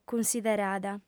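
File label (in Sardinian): "cunsiderada", female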